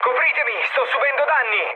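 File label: Italian aircraft damaged mood med, speech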